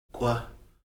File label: "k̓v", Heiltsuk